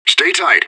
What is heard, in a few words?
radio bot regroup; fbihrt